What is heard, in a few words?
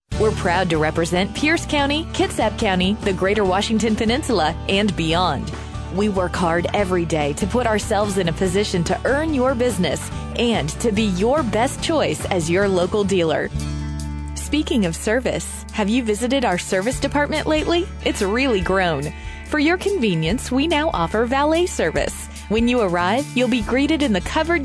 female voices